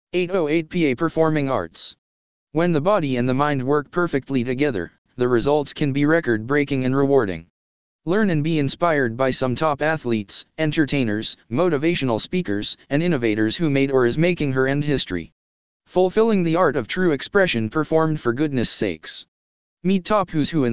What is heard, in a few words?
text 2 voice